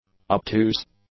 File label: "obtuse", english; adjectives